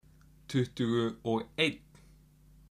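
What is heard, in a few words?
"tuttugu og einn", Icelandic; tolur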